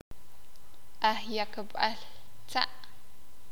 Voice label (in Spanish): Payaso, sakapulteko